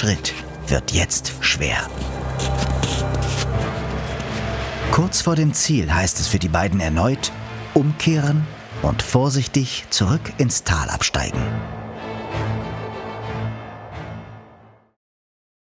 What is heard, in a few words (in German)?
tv doku schnee; Sprecher in Wien, Köln; Muttersprache Deutsch